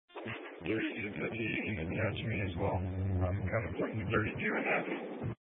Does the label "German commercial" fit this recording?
No